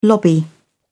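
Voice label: "lobby", English, British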